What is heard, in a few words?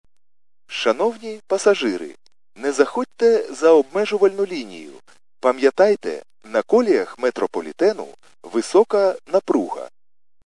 khs st male, subway station